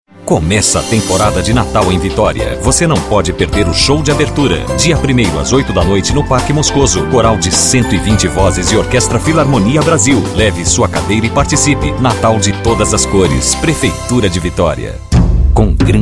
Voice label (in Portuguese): loc masc, Locuções Publicitárias; Rádio, VT, audiovisual, espera telefônica; Criativa Áudio Produtora